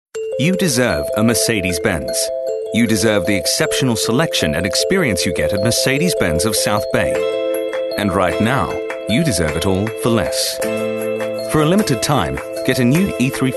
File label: posh